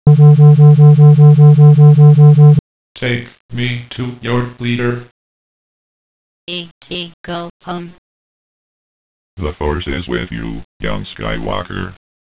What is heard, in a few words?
DoubleTalk SciFi; RC Systems; vintage; synthesizers